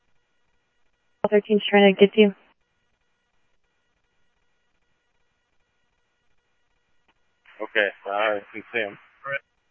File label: PD Police, scanner audio